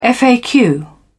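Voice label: "faq", British English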